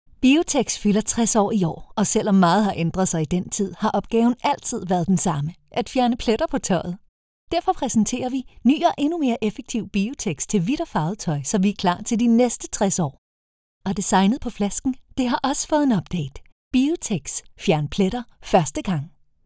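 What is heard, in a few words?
Danish, female; Biotex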